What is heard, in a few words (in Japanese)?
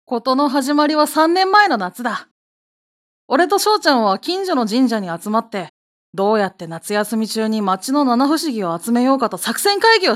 テラーナレーション少年